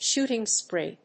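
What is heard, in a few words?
"Shooting spree", English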